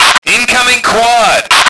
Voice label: male; lmctf; radio; quad